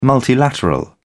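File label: "multilateral", English, British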